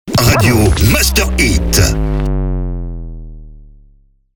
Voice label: Sweeper MEDIUM SPEED; Radio Master HIT